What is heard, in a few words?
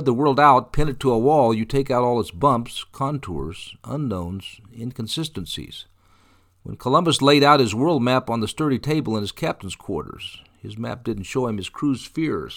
talking on the phone